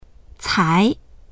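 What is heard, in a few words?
"cai", Mandarin; 才; いま…したばかり、やっと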